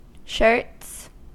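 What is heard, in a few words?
"shirts", US English; pronunciations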